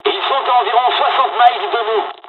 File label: dialog, dialogs french; War Thunder